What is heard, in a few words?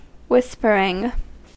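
"whispering" English, US; pronunciations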